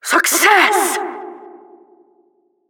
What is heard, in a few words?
Announcer first stage outcome